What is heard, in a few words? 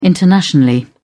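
"internationally", British English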